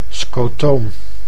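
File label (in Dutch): "scotoom", Dutch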